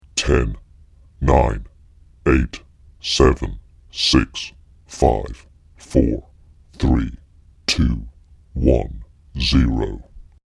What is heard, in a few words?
Male Countdown Very Deep Voice; Recorded Voice Sound Effects; human voice; spoken word